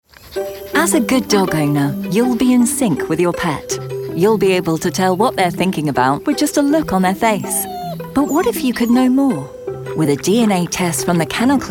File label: warm and friendly